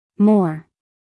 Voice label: "moor", US female; 1000 hours

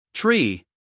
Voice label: "tree", us male

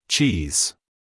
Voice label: "cheese", us male

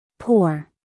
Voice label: "pour", US English, female

